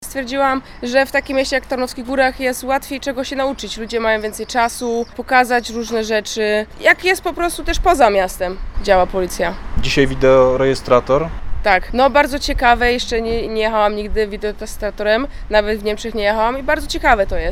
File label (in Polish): policjantka